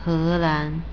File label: "helan", Chinese; Holland = He2-lan2